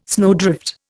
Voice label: "snowdrift", Indian